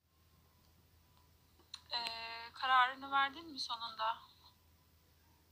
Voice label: instagram fake kiz sesi

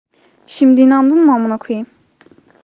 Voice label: fake kiz sesi simdi inandin mi

